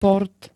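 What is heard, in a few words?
"port", Slovak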